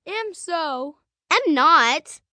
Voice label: Am So Am Not, 1 boy, 1 girl, 9 yrs; Talking; VoiceBox